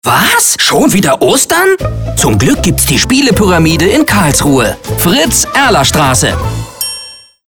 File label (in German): Spot Ostern; Spielepyramide